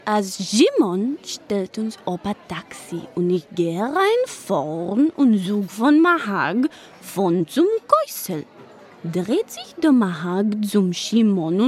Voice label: Yiddish